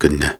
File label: Soft Syllables and Imaginary Words, Viking Vocal